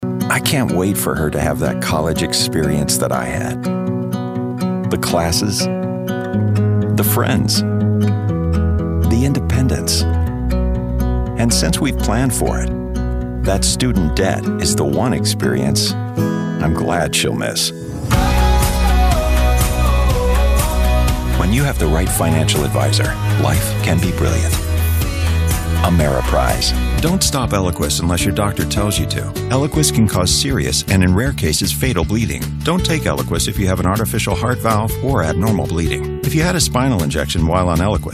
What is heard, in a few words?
commercial